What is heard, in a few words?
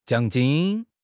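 "jiang3 jin1", Mandarin Chinese; HSK4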